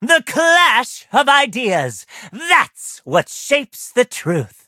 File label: levelup, en; Cookie Run